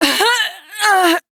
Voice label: Death, Female; Warframe; Railjack; New Loka